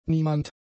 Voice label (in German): "niemand", German; pronouns